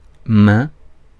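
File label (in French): "main", French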